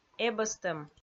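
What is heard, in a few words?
"эбӧстӧм", Komi, female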